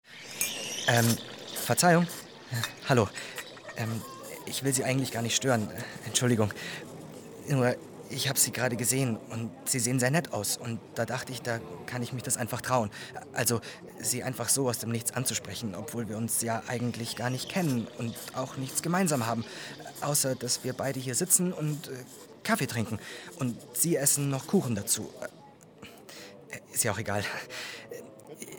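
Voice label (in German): Sprachproben schüchtern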